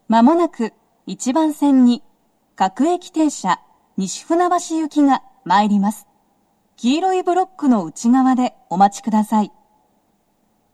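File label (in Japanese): 接近放送, 女声, 放送は「各駅停車 西船橋行き」です。妙典で、快速 東葉勝田台行きの通過待ちを致します。; TOA円型での収録です。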